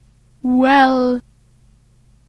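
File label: "well", British English; tdeedu; klettres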